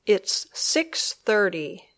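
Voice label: "It's six thirty", English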